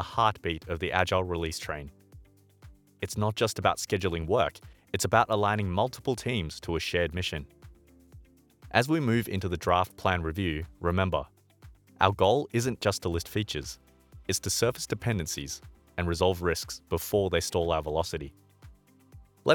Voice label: E-Learning tech and HR; Alexion

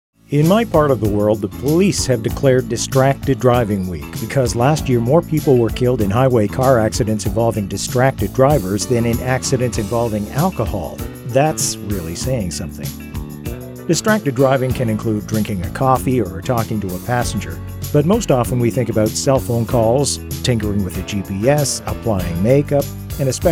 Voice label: Robotic Interstellar Blood

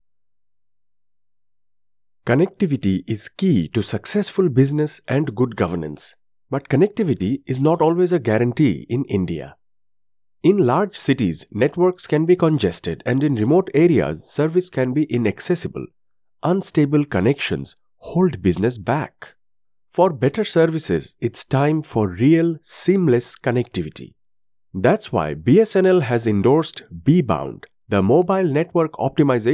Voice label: English Indian Accent

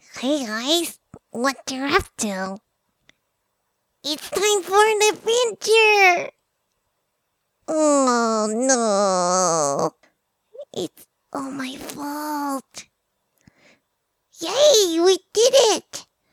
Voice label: English shy duck

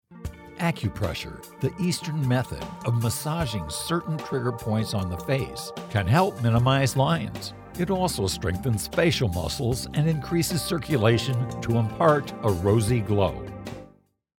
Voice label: E-Learning Accupressure

